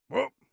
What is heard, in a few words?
hup, orc voice; Orc Voice Pack